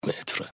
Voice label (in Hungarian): "métert", gps